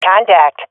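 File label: sees enemy, swat female; vo; agents; Source Model Packs; Counter Strike Model Pack; CS2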